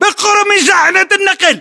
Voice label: landmark near truck pickup, english battlechatter